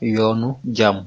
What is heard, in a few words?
bonvoyage, wolof